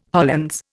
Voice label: "Hollands", Indian